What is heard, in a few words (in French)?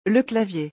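"le clavier", French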